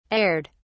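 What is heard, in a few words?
"aired", British English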